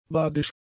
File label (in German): "badisch", German; adjectives